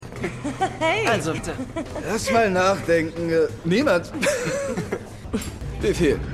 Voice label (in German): Studentin Student